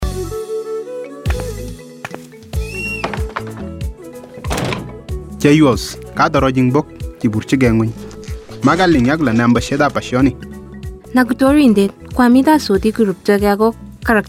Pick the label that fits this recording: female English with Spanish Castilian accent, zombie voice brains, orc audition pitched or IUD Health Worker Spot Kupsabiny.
IUD Health Worker Spot Kupsabiny